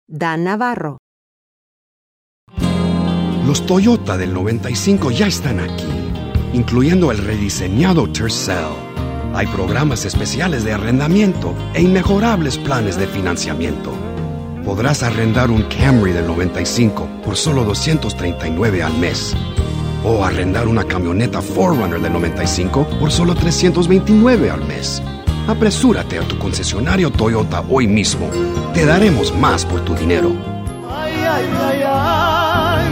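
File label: Spanish commercial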